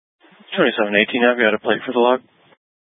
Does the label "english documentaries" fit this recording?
No